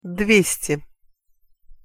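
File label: "dvesti", Russian pronunciation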